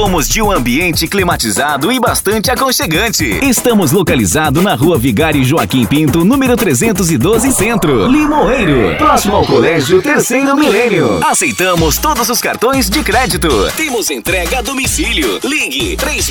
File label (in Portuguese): Spot Restaurante; Yoshiaki